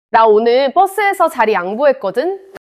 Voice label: neutral, monologue

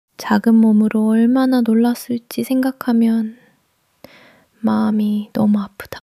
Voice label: sadness

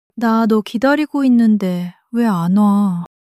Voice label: sadness, monologue

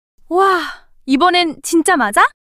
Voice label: surprise, monologue

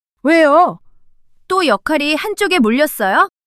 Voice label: surprise, monologue

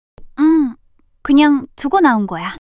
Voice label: shy; monologue

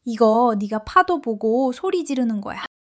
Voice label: excitement; monologue

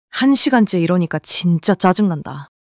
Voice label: anger; monologue

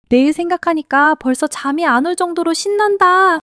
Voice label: excitement

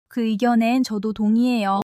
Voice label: joy; monologue

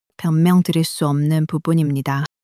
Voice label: sadness, monologue

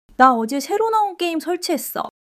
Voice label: excitement; monologue

